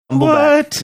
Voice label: "what", sing songy